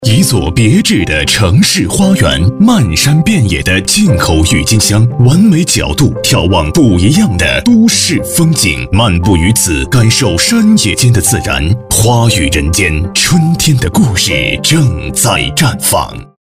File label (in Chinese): 地产温情 男 大气温情; 城市花园